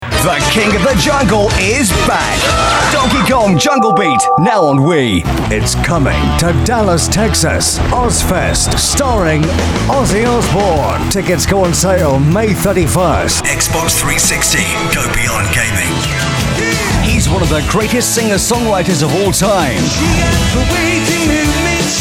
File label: Hard Sell